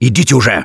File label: emphatic go quiet, russian; Gambler